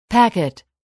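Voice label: "packet", English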